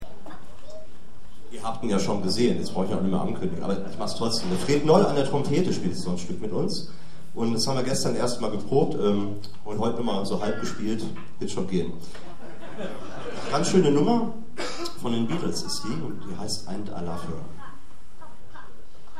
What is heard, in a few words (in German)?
Ansage; Jazz-Club Trier e.V. (JCT); 15. Jazzgipfel (Tufa, Trier)